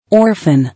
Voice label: "Orphan", English